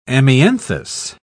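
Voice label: "amianthus", English, British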